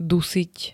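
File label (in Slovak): "dusiť", Slovak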